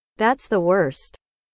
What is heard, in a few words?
english